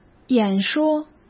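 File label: "演说", Mandarin Chinese; chinese flashcards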